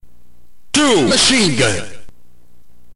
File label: narrator